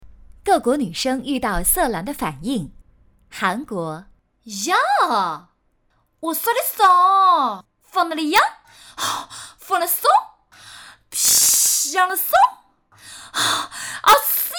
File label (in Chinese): 搞怪 多国语 试音